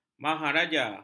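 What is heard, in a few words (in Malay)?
"maharaja", Malay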